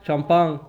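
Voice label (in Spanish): "champan", Cuba, Havanna; Kuba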